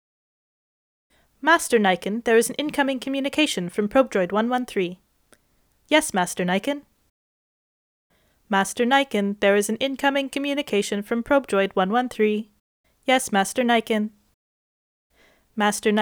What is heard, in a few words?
Computer Voice